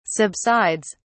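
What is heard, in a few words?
"subsides", British English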